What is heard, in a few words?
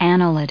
"annelid", us